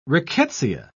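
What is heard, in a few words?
"rickettsia", British English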